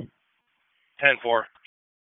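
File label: Police Northeast District Dispatch, p25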